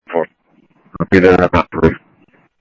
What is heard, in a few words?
Scottsdale Police, scanner audio